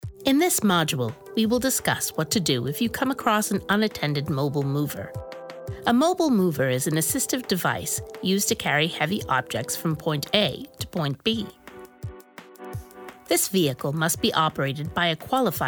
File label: Elearning